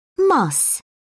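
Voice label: "muss", British English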